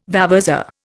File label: "vavasour", Indian